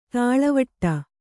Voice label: "ದೂಳುವಡೆ", Kannada